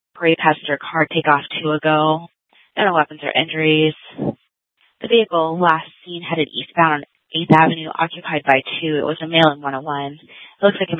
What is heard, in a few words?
Police Department Dispatch, scanner; Mesa; TOPAZ Regional Wireless Cooperative (TRWC); Mesa Simulcast; Fiesta